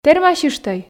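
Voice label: "тэрмасьыштӧй", Komi, female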